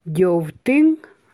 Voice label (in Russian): "дёвтиң", [дёвтиң]